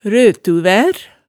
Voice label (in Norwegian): "røtuvær", hemsedalsmaalet